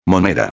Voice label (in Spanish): "moneda", espanhol; palavra do dia